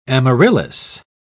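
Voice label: "amaryllis", English, British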